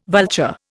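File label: "vulture", Indian English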